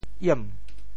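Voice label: "iem2", Teochew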